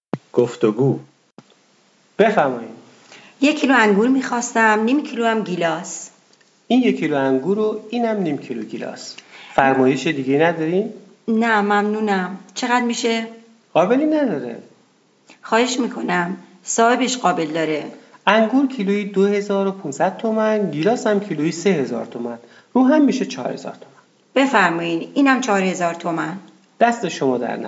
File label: Dialog, persian